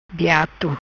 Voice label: "Biato", Macanese